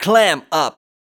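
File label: vocal male, Dance Vocals, spoken words; Best Service ProSamples vol.12; AKAI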